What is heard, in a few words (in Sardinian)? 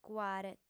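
"cuare", female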